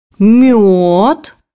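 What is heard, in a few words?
"honey", Russian; bukvar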